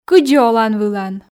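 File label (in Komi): кыдзи олан-вылан, Komi, female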